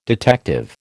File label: "detective", American